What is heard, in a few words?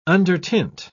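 "undertint", British English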